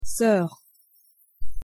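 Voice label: pronunciation "soeur", French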